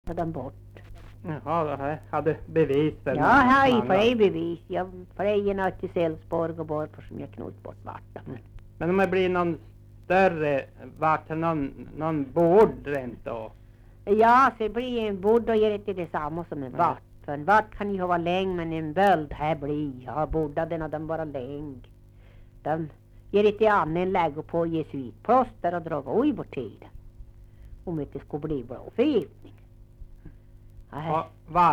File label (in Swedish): Svenska sagor, dialekter; Folkminnen